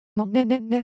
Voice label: Japanese Texture, Ideo Phones